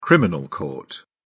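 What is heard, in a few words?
"criminal court", British English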